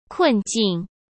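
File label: "困境", Mandarin Chinese; chinese flashcards; hsk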